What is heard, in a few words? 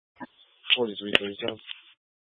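Sheriff Dispatch, Scanner audio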